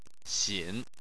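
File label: "xin", Chinese, third tone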